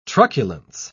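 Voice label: "truculence", British English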